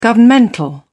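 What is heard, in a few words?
"governmental", English, British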